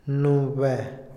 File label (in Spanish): hoy, Otomí, hombre; Tiempo; Vocabulary; INALI